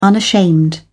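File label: "unashamed", British English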